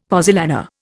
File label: "pozzolana", Indian; Dictionary